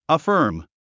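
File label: "affirm", English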